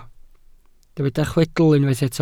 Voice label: "chwedl", Welsh